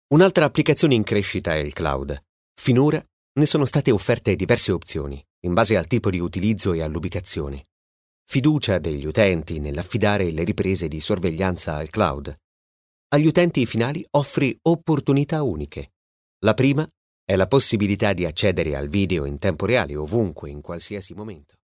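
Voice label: Italian, male, narration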